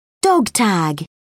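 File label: "dog tag", British English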